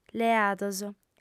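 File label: "leados", Sardinian, female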